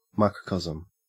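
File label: "microcosm", Australian English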